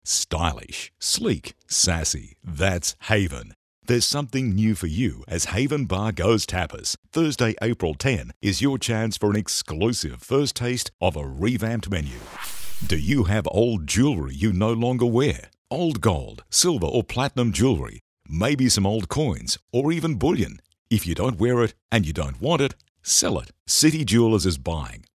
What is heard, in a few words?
Warm Friendly